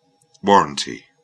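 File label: "warrantee", British English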